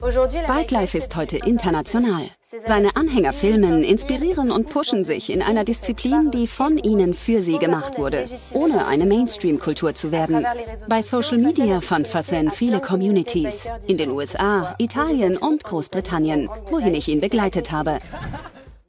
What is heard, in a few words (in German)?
voiceover doku cool dynamisch; arte tracks bikelife